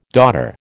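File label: "daughter", American English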